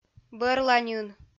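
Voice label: "бӧрланьын", Komi, female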